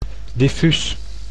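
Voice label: "diffuus", Dutch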